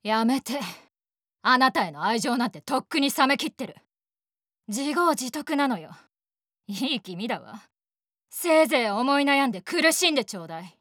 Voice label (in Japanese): 女子